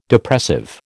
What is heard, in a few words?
"Depressive", American